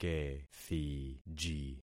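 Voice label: "kethiji", concatenated syllables; Affixing experiment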